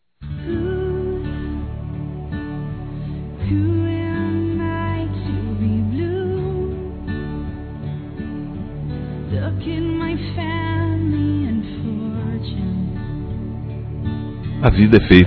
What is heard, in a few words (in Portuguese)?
Natal Romântico Ficante Masculino; Telemensagens; Natal e Ano novo